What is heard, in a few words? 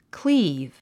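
"cleave", English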